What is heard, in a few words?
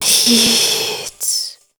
WHISPER; WHISPERS